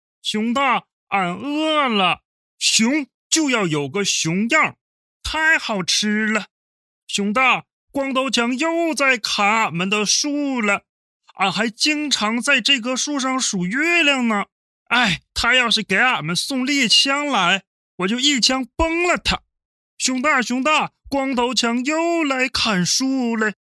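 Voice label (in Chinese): 男 特型动画配音; 熊二配音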